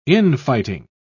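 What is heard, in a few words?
"in-fighting", British English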